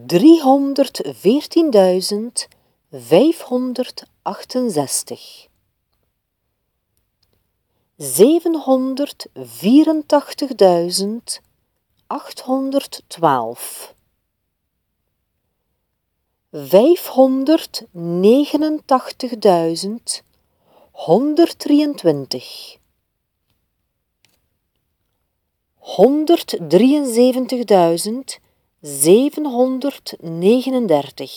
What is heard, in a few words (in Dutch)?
Cijferdictee